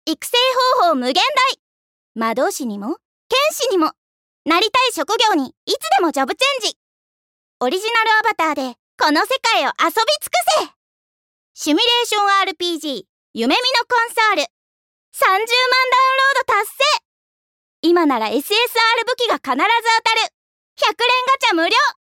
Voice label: Narration Game CM

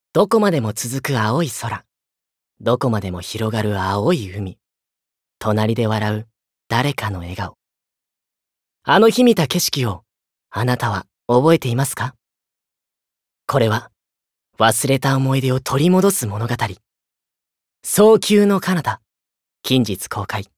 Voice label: Narration Shittori

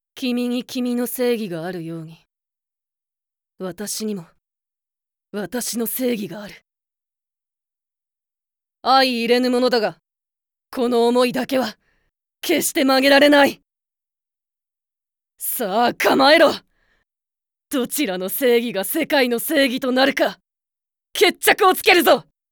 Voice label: voicesample Otonajosei

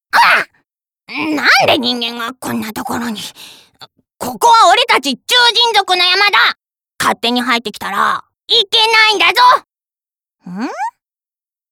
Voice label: voice sample, animal

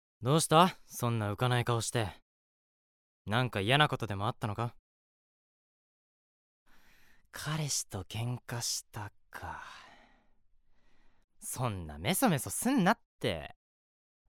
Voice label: Reliable Youth; 頼りになる青年

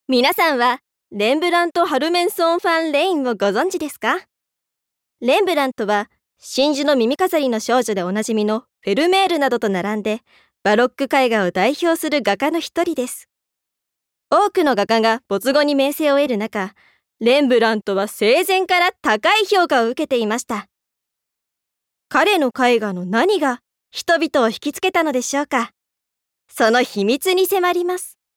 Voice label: Narration Kyouiku

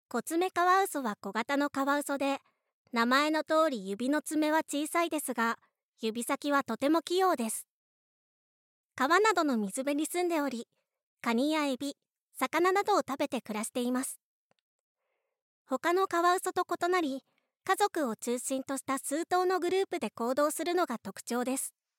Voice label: Narration

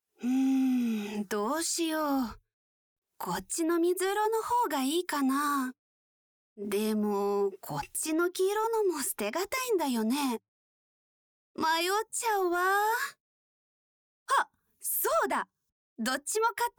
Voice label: voice sample, Kind Sister

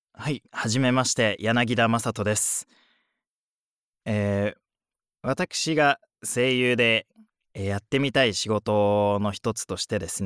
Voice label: Free Conversation

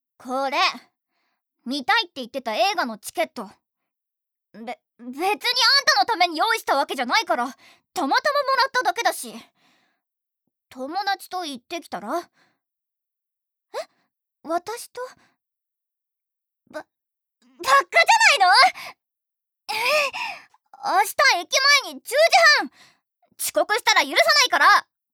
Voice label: Tsundere, ツンデレ少女

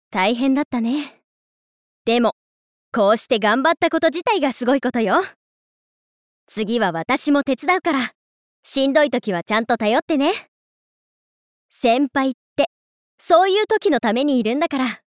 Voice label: Reliable Senpai, 頼れる先輩

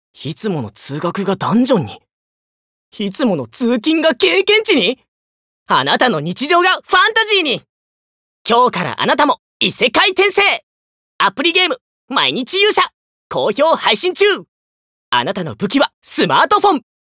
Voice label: Narration Game CM

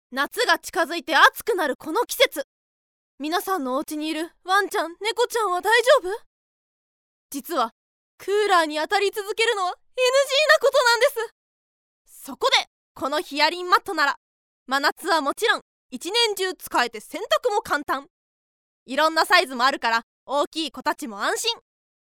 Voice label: Narration Product CM; ナレーション①商品CM; bloomz-inc

